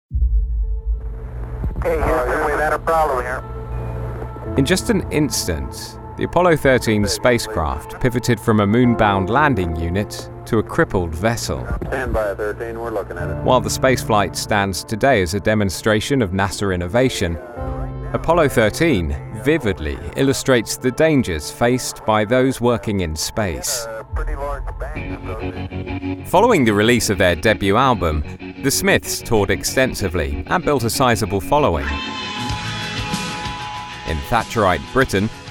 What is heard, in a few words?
NARRATION REEL